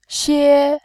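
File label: "xie", Mandarin Chinese, tone 1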